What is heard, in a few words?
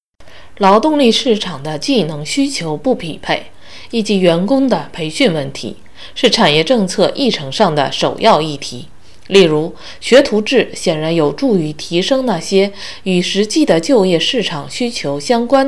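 Practice Chinese; ZH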